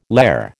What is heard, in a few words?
"lehr", American